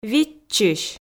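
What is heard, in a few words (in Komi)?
"виччысь", Komi, female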